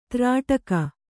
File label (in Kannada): "ತ್ರಾಟಕ", Kannada